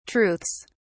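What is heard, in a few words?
"truths", British English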